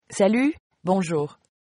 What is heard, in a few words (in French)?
hello, fr; Salut / Bonjour